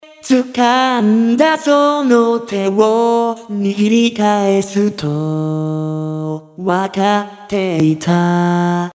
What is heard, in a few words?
Vocaloid